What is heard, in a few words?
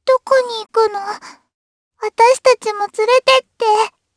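voice dia, voices heroes, Japanese; Lavril; kingsraid; jp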